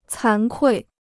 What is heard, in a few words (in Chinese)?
"惭愧", Chinese